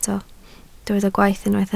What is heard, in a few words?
"gwaith", Welsh